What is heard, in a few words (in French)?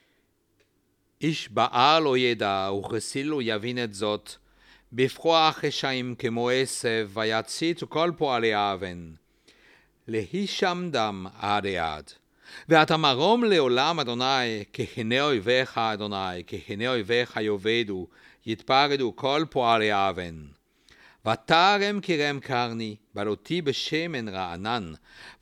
Psaume 92 lu; la liturgie du chabbat; offices de chabbat